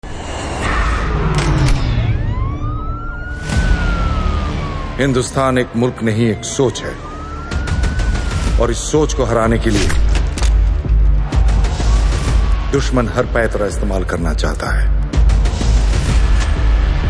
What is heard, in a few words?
Trailer Intro Dialogue Ringtone; Bell Bottom